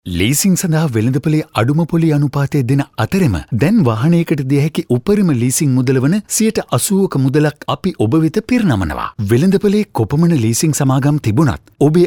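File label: Sinhala male voice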